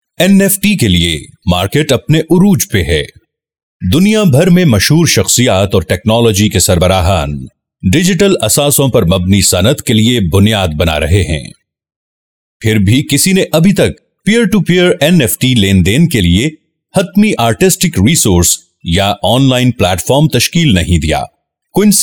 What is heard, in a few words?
Voice Over